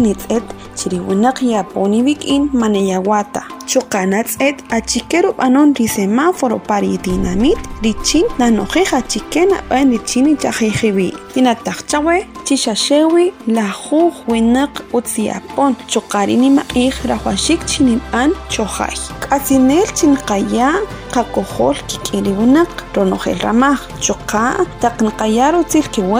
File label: SPOT KAQCHIKEL COVID-19